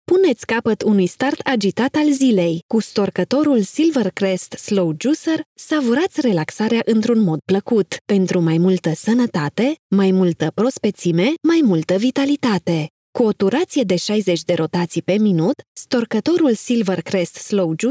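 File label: Romanian female narration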